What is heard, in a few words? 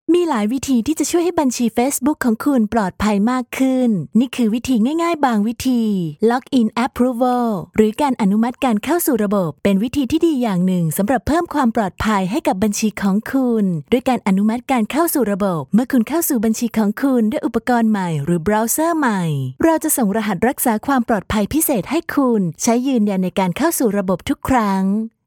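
Thai, female, corporate; voice-over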